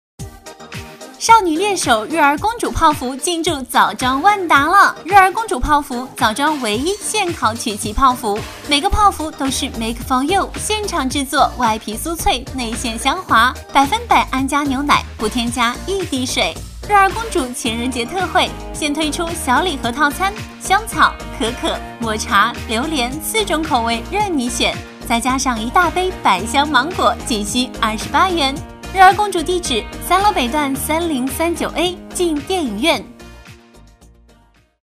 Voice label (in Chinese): 女 瑞儿公主面包店（甜美）